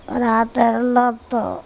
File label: "ratErlOto", andamanese; pig black toothed